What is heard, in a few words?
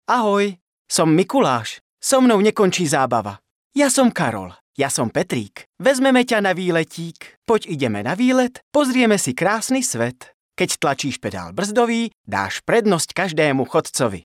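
Male Slovak drama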